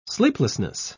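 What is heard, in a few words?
"sleeplessness", British English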